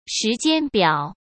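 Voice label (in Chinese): "时间表", Mandarin Chinese; chinese-flashcards; hsk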